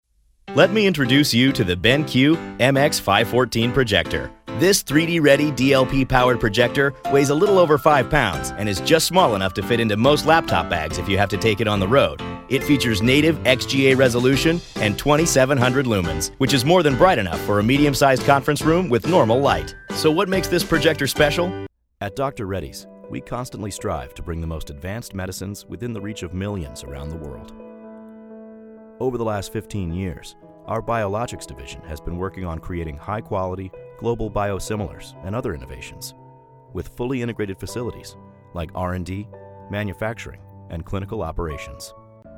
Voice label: Narration